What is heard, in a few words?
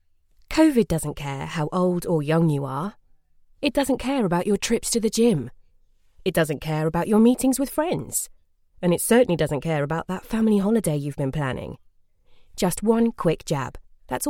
female commercial voiceover soft engaging